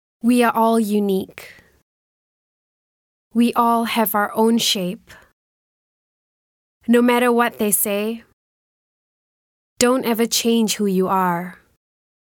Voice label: Asian English Motivational